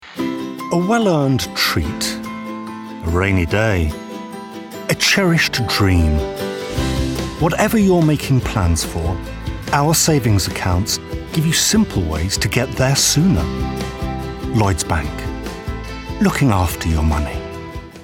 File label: male english bank commercial; lloyds